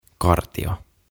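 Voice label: "Kartio", Finnish; Cone; OTAVA KONSERNI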